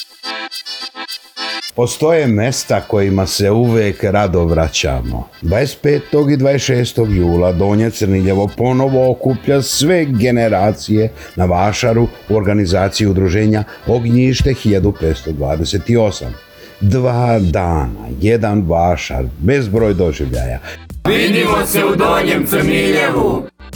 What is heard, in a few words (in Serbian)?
Radio spot; Vasar 2026; dva dana tradicije muzike i porodicnog druzenja